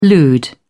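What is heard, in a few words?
"lewd", British English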